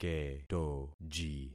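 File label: "ketoji", concatenated syllables; Affixing experiment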